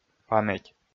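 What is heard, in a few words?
"паметь", Komi, male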